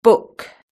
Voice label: "book", British English